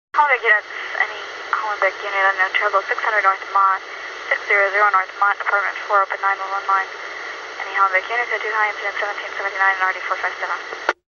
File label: radio police dispatch